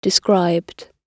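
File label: "described", British English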